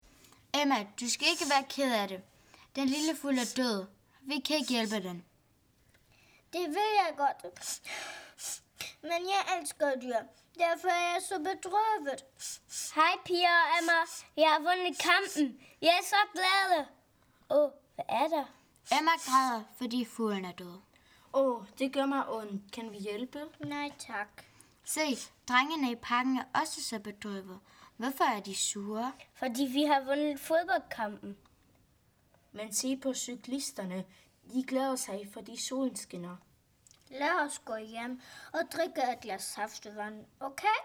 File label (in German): Dialog